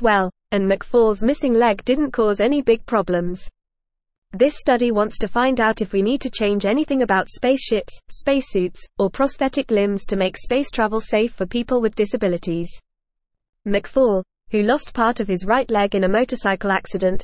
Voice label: English FAST Reading; Former Paralympian studies feasibility of disabled